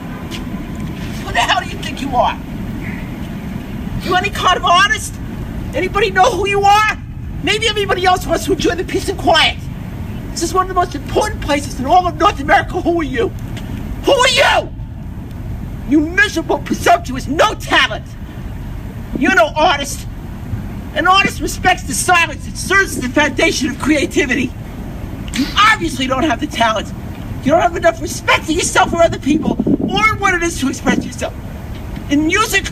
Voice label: Man Yells at Trumpet Player